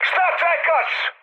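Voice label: Serbian attack position mood high; War Thunder; speech srb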